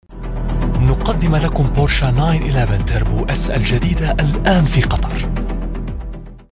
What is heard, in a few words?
Arabic Voiceover